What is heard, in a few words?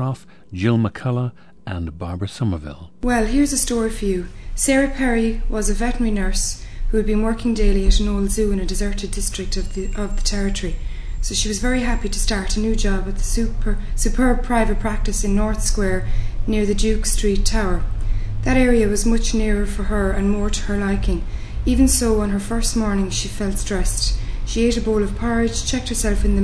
ireland, dialects